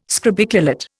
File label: "scrobiculate", Indian